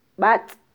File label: "batz", mam